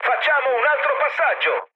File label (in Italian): bomb failure, mood med, speech it; War Thunder